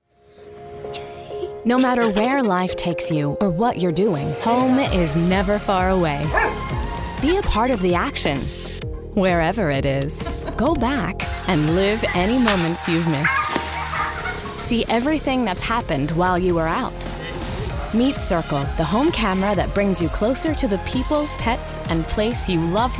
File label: voice over